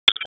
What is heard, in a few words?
Police Spec Ops Tac 4, p25; wake